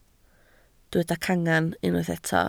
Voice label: "cangen" Welsh

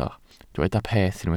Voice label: "peth" Welsh

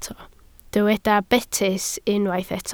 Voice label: "betys", Welsh

